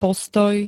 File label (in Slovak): "postoj", slovenské